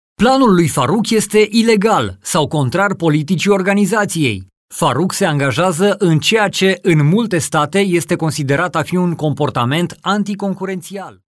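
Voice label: Romanian, male, e-learning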